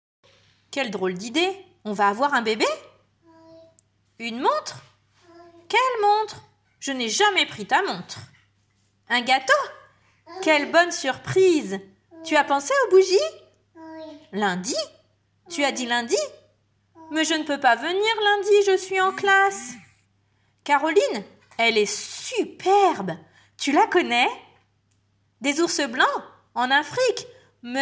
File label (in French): lecture a voix haute